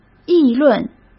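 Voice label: "议论", Mandarin Chinese; hsk; chinese-flashcards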